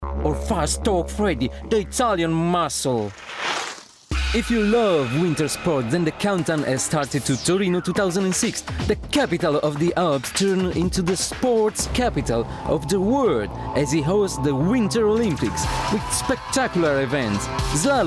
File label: fast talk, italian actor